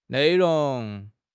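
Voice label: "nei4 rong2", Mandarin Chinese; HSK4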